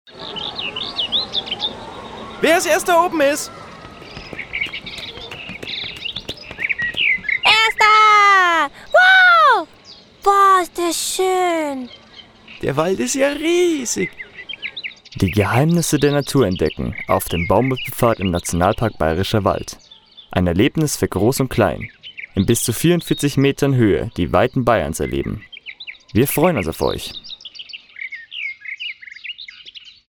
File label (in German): Werbung; Erlebnis Akademie